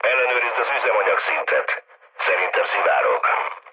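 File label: Hungarian tank damaged mood low, speech; War Thunder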